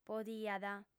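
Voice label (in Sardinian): "podiat", female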